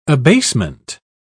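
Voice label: "abasement", British English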